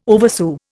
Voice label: "oversew", Indian

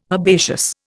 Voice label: "herbaceous", Indian

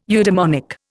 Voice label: "eudemonic", Indian

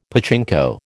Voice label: "pachinko", American

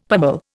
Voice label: "pummel", Indian; Dictionary

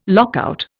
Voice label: "lockout", Indian

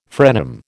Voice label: "frenum", American

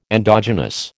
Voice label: "endogenous", American

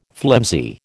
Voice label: "flimsy", American

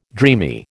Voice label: "dreamy", American

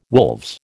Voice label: "wolves", American

